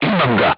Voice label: voice message target destroyed, crew tanks serbian